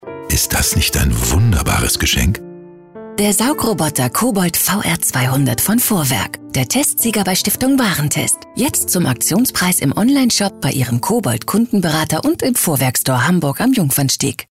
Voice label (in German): Funk Spot; Vorwerk; Funk Spot mit Sky